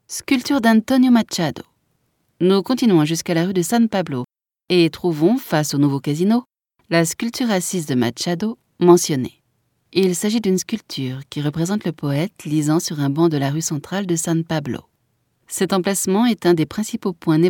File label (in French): audioguia, frances; audioguia baeza